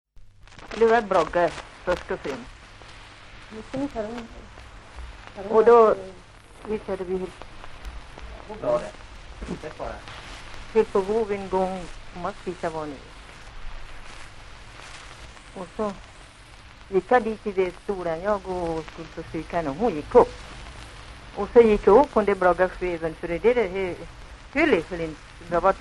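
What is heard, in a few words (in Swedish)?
Järbo Gästrikland, dialekter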